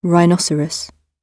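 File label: "rhinoceros", British English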